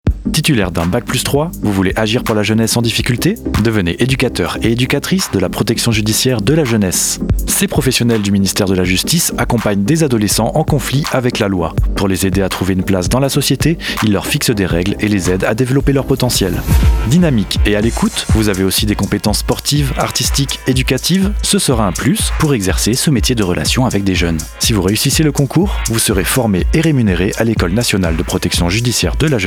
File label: spot; montpellier; educateur pjj